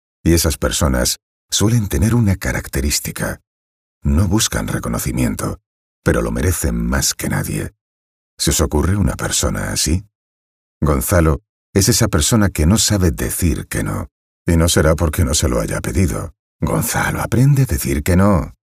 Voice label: locutor spanish voiceover